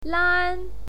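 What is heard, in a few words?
"lan", Mandarin Chinese, first tone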